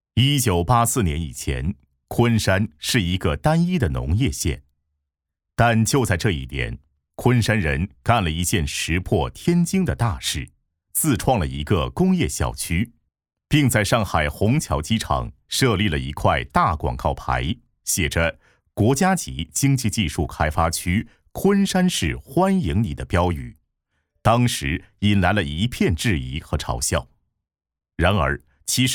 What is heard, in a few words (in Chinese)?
男 宣传片; 昆山